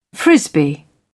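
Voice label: "frisbee", British English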